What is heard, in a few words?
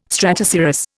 "stratocirrus", Indian